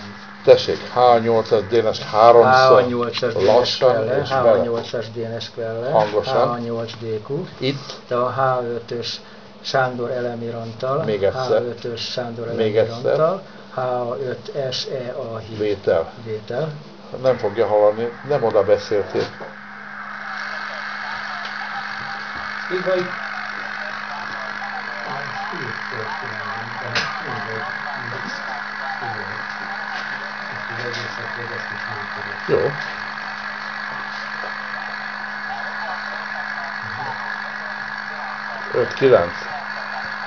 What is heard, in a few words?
QSO; MOM